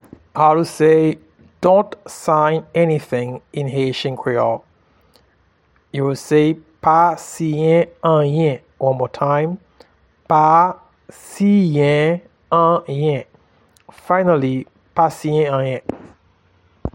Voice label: Dont sign anything in Haitian Creole, Pa siyen anyen